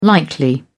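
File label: "likely", British English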